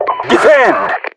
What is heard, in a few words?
defend, RU; english; mpvoice